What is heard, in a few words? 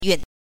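"yun", Mandarin Chinese syllable, neutral tone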